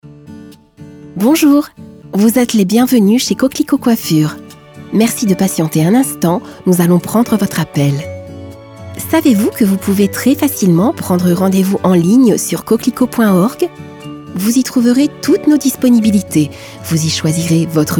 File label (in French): voix off message accueil telephonique femme